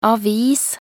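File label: "avis", Norwegian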